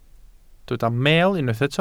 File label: "meel", Welsh